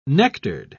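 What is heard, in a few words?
"nectared", British English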